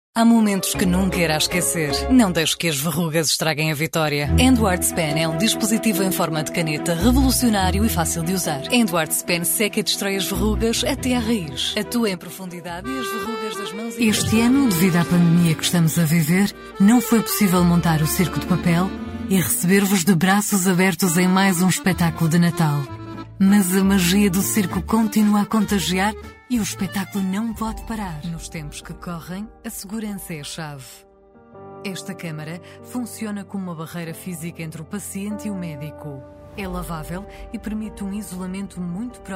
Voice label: portuguese commercial